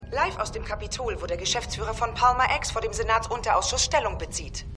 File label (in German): Nachrichtensprecherin, Stimmproben